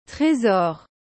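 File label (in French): "Tresor", French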